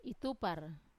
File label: "itupar", I appear, wamesa